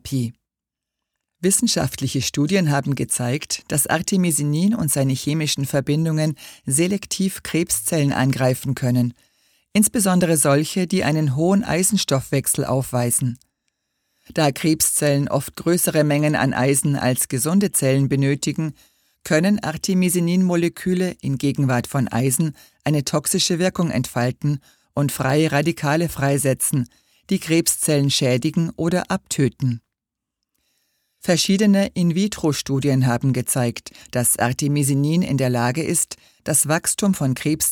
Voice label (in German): Hoerbuch Artemisia annua